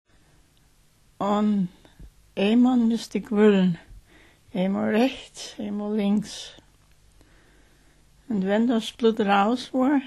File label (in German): Lausitzisch; Mitteldeutsch